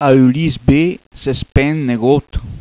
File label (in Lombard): A ülis bé, se spènt negot., bergamasco; PROVERBI BERGAMASCHI; Colognola